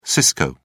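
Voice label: "cisco", British English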